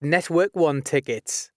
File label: ntl tick, announcements male